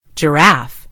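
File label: "giraffe", British English; gcompris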